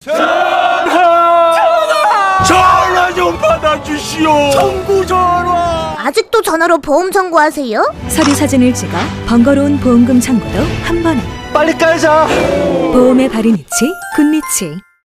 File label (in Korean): 캐릭터 차분한 30대; 굿리치